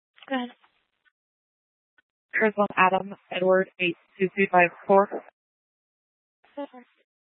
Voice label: Tempe PD, scanner; Rgnl Wireless Co-op (RWC)